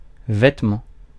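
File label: "vêtement", French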